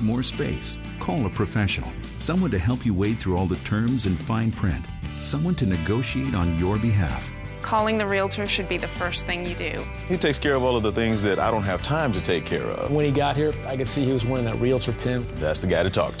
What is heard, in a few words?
commercial legalese